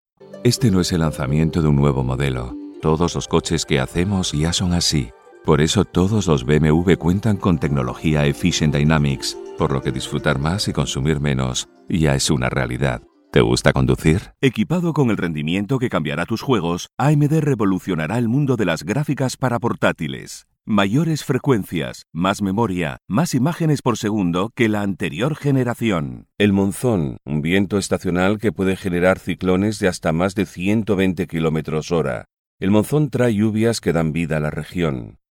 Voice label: corporate